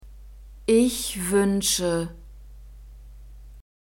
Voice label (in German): ich wuensche, German